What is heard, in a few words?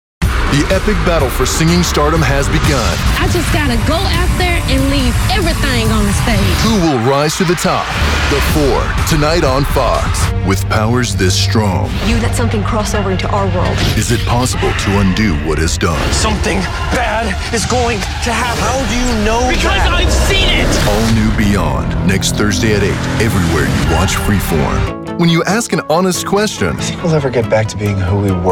Promo Demo